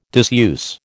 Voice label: "diseuse", American

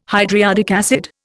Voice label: "hydriodic acid", Indian

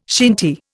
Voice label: "shinty", Indian

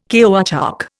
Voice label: "caoutchouc", Indian

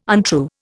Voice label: "untrue", Indian

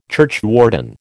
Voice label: "churchwarden", American

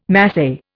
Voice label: "Masai", Indian

